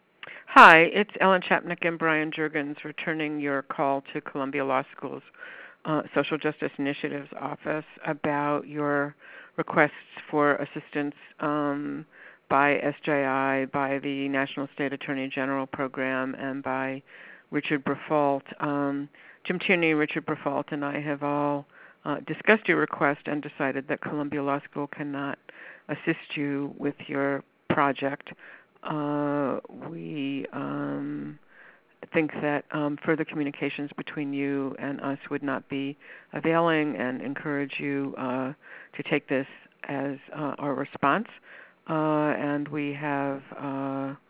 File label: voice message